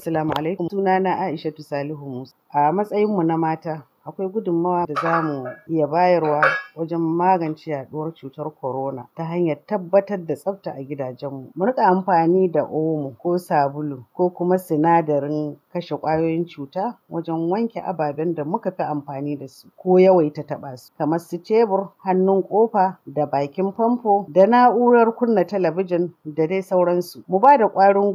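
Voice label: FEMALE, Hausa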